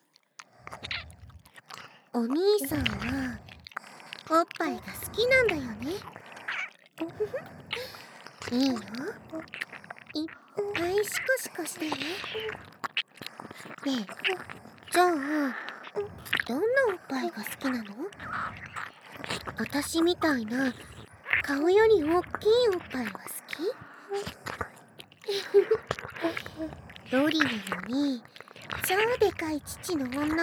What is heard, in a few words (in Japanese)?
巨乳フェチ向け激シコ淫語連発ボイス; 爆乳ロリサキュバス